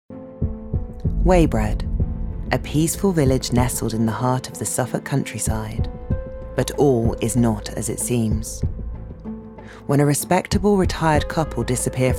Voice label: Documentary

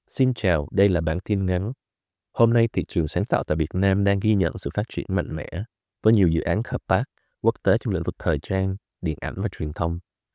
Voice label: Vietnamese

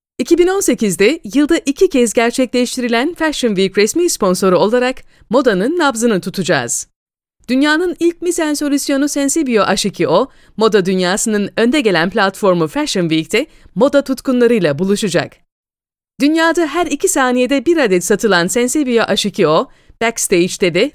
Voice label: Turkish

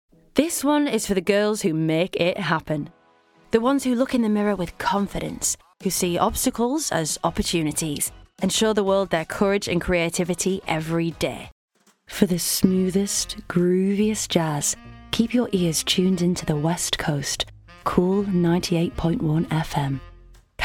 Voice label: commercials

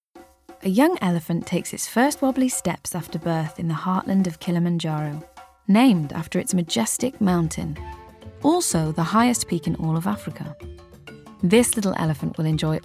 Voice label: Narration; 20s-30s; Female; Welsh

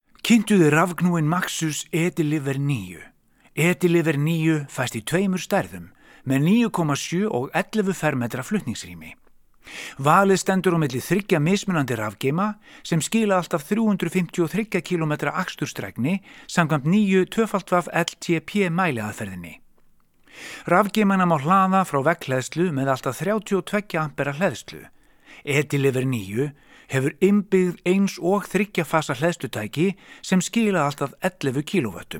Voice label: Icelandic

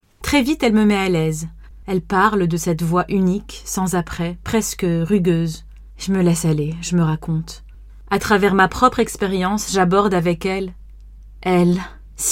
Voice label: FRENCH

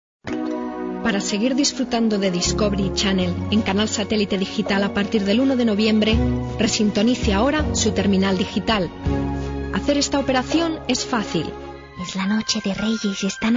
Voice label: Spanish

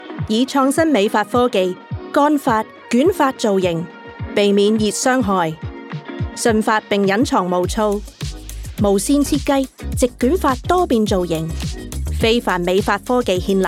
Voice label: Chinese Cantonese

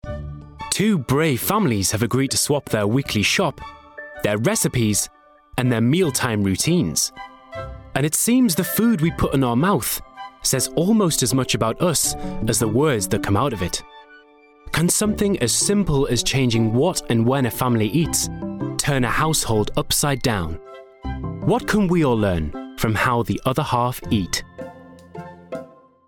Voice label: Documentary; regional accents

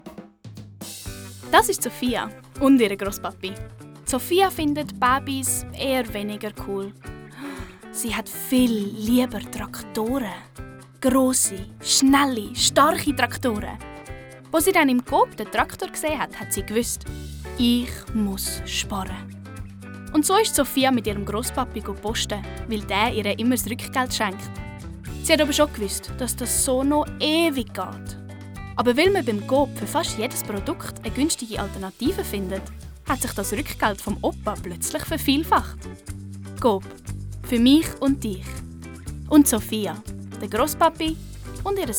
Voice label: Commercial Swiss German

